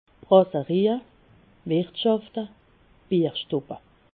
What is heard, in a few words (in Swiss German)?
"brasserie", Alsace